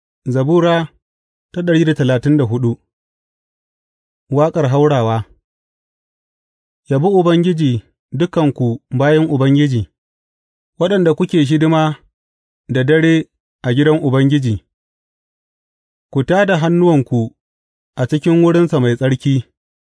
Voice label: hausa bible drama, psalms; hausa bsn nt drama

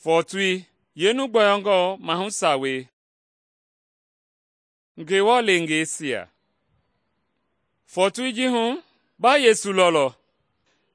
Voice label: mende bsl nt drama; good news mende kpa

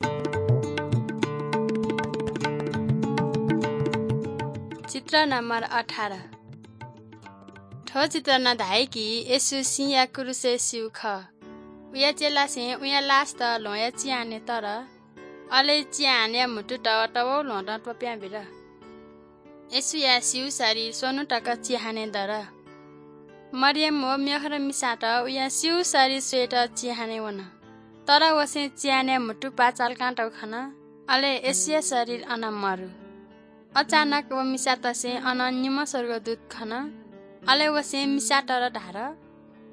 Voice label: good news newari balami

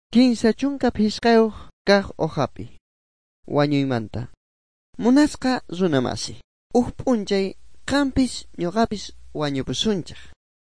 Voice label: good news quechua bolivian sucre; bible

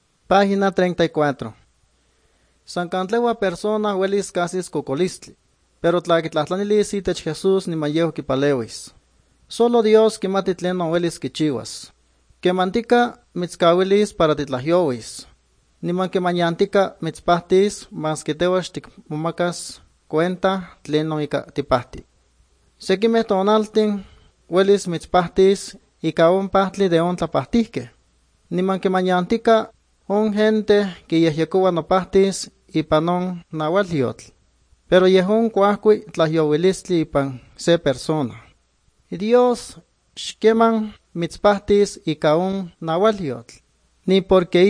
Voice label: good news, nahuatl guerrero chilapa

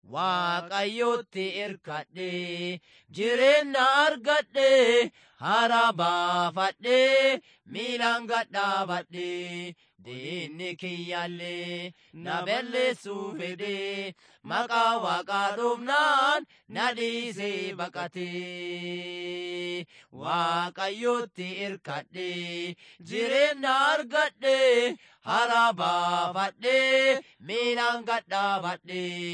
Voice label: words of life oromo arusi

